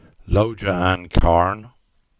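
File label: "Carn Lodge", Cornish; place-names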